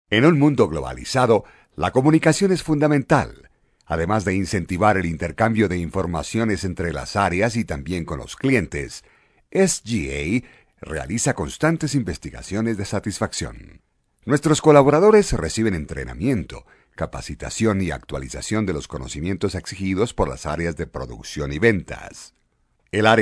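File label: spanish male voice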